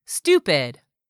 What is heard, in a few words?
"STUPID", english; 3000 words